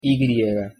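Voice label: pronunciation "y", Spanish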